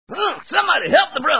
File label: Impersonator Impressionist Comedy; James Brown; Corporate Entertainment